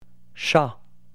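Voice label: "chat", French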